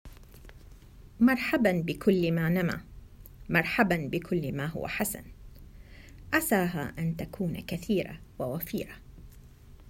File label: arabic